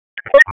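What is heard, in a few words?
Police Northeast District Disp, radio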